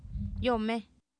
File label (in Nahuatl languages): "yOomEh", Oapan, female; Oapan minimal pairs 1998